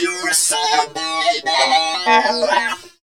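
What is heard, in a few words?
effects talk, Funky Vocals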